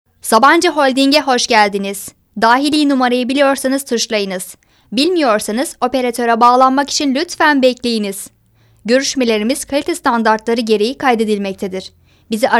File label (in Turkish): REKLAM